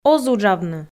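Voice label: "оз уджавны", Komi, female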